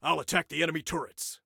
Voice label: Self Attack Turrets, Soldier Male; Soldier Male 02